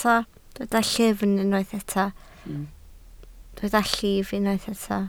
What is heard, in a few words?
"llif", Welsh